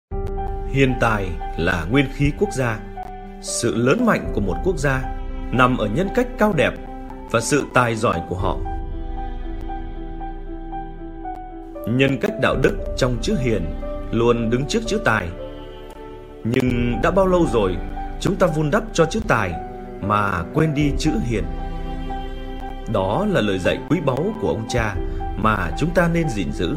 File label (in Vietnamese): male, Vietnamese